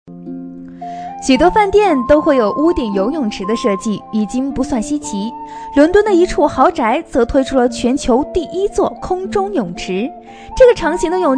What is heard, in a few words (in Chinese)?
视频配音 空中泳池 轻松活泼, 女